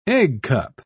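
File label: "eggcup", British English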